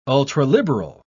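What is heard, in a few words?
"ultraliberal", British English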